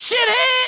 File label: angry trucker; GTA V